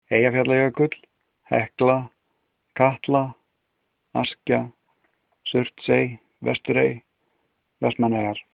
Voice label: volcanoes, Icelandic